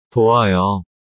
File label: "도와요", korean